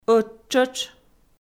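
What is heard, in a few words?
"ӧттшӧтш" Komi, female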